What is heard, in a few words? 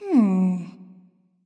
thinking; smite; neith